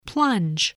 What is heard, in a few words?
"plunge", English